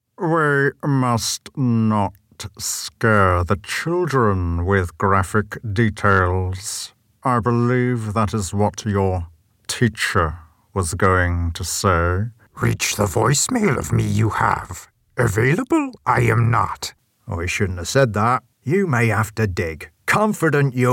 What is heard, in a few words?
character